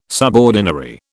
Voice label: "subordinary", British